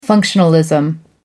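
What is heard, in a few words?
"functionalism", British English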